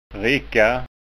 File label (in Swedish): "ricka", skanska ord